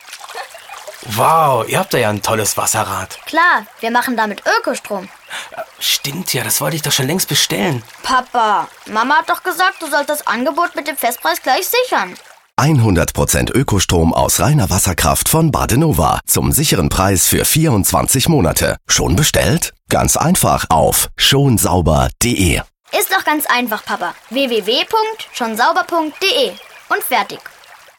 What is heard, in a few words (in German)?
Spot; badenova Fix24